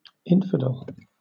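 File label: "infidel", British English; pronunciations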